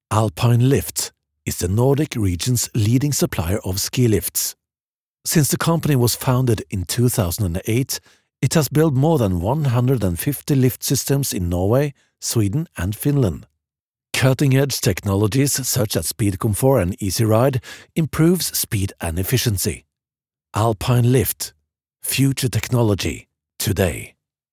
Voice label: English with norwegian accent

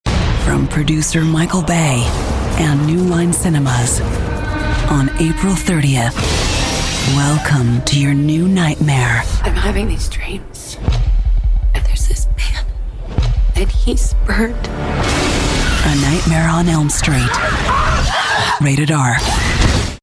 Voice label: US movie trailer

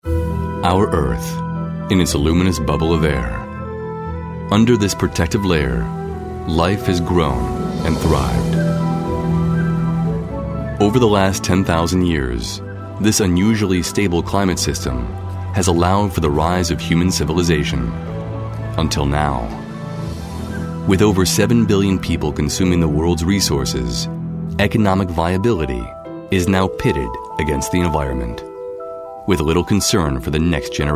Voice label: us documentary

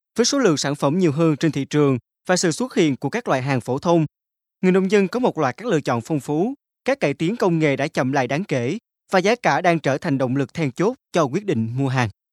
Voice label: vn informative

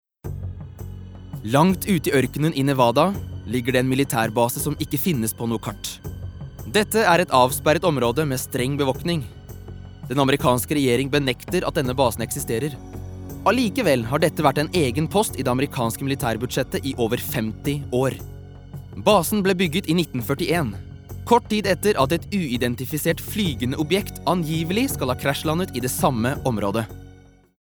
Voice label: no documentary

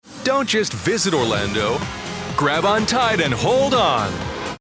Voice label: us commercial

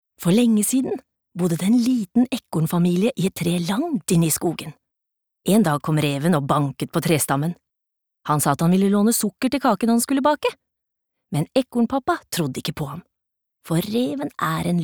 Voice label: no childrens book

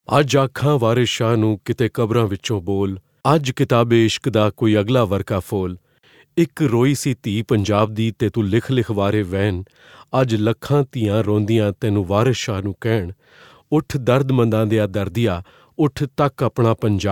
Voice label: punjabi

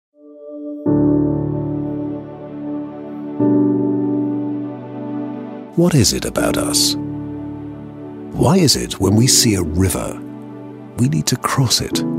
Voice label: uk narration soft

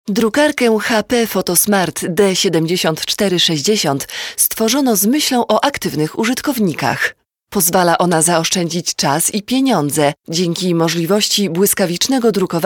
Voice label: pl narration

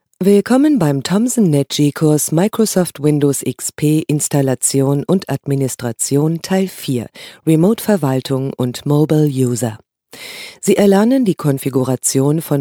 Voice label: de elearning

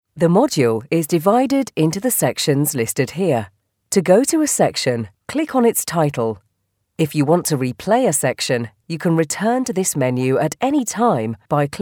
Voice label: uk elearning, female english uk

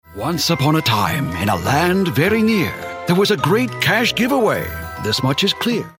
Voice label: us narration

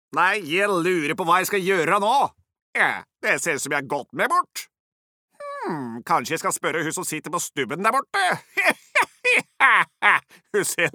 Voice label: no dub character hedmark

